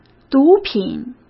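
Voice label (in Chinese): "毒品", Mandarin Chinese; chinese flashcards; hsk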